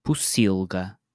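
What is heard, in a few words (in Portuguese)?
"pocilga", português europeu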